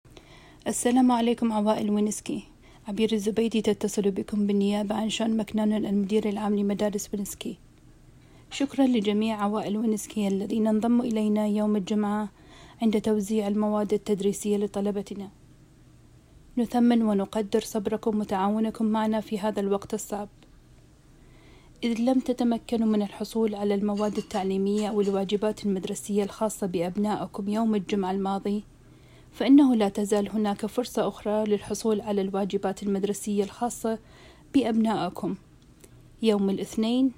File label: arabic